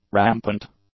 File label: "rampant", English; adjectives